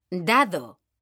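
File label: "DADO", Spanish; mekanta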